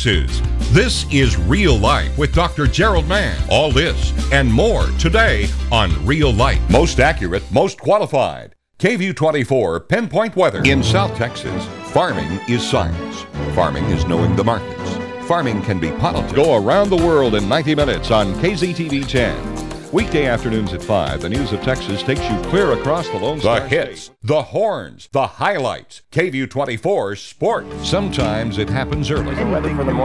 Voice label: TV Radio, voiceover